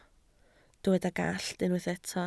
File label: "gallt", Welsh